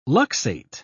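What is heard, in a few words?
"luxate", British English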